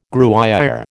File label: "Gruyère", American